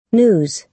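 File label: "news", American English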